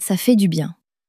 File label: "ca fait du bien", French